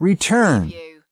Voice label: "return", US male, American English

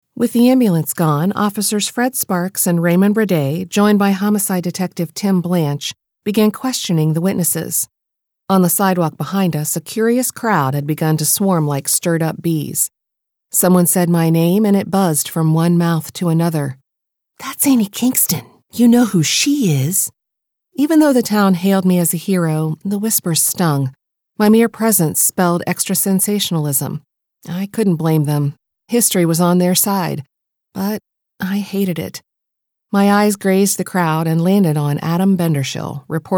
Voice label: Audio books, American; USA

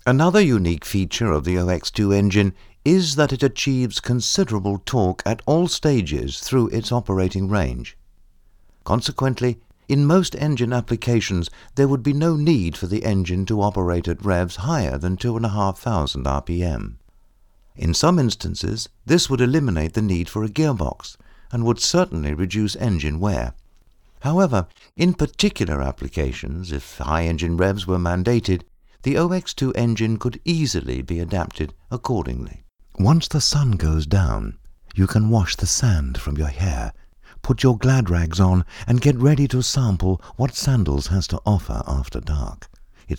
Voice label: Voice-over Corporate UK

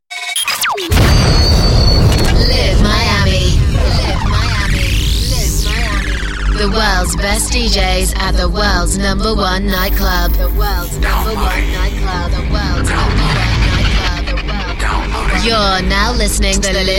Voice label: dj intro showcase, DJ Imaging; Voice-over demos

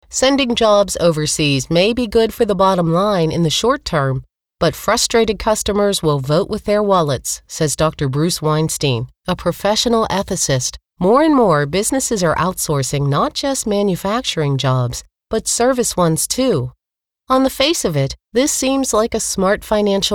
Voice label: Audio books American; USA

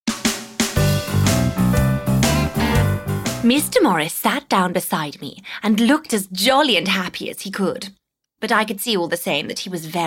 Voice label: audiobook

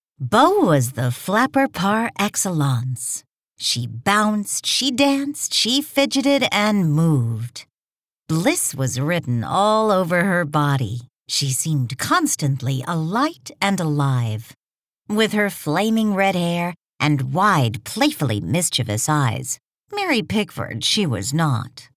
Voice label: audio books, American; USA

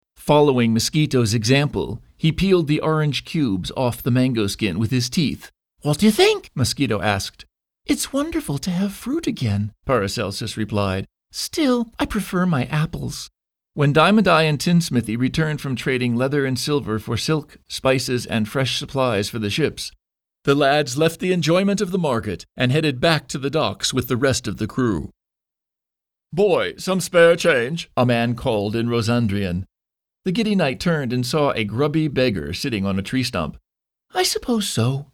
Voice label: audio books, American; Voice-over demos; USA

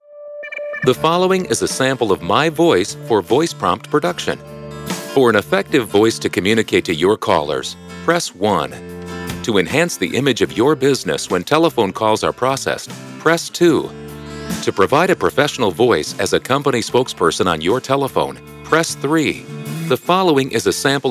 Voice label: On Hold, US; Voice-over demos

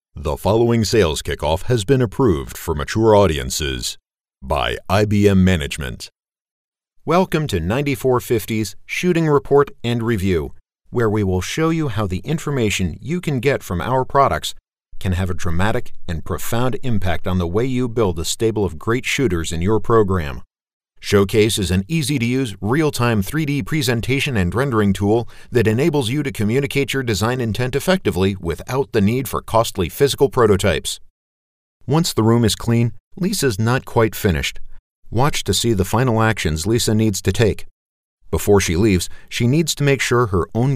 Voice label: Corporate, American Corporate; Voice-over demos

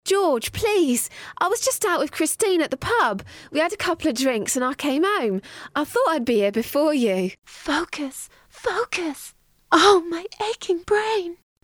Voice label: Character

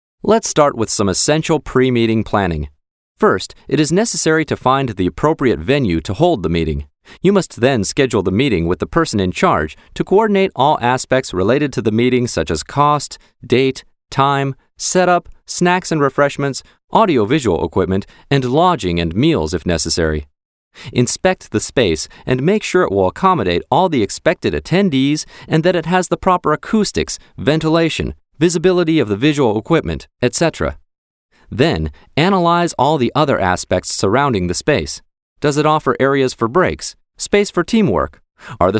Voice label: American Corporate; Voice-over demos

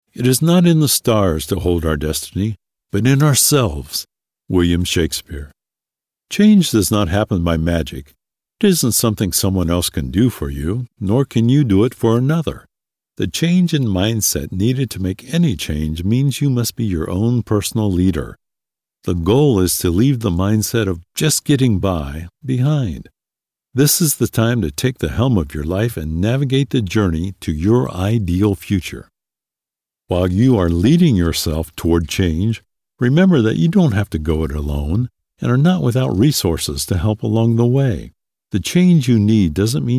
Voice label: audio books, American; USA

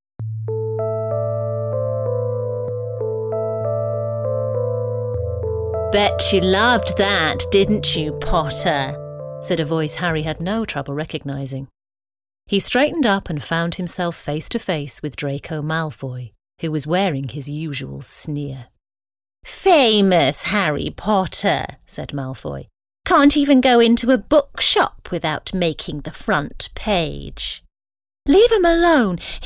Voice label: Audiobooks

